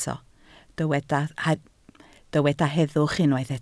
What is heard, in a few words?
"heddwch", Welsh